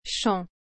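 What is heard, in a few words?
"chant", French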